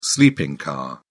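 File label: "sleeping car", British English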